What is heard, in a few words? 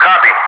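"copy", English, Wingman; DCS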